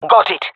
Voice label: affirmation, fem; vo; Counter Strike Model Pack; CS:GO

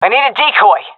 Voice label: request decoy, seal fem; vo; Counter Strike Model Pack; CS:GO

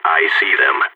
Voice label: radio enemy spotted, balkan; vo

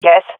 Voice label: affirmation, swat fem; vo; Counter Strike Model Pack; CS:GO

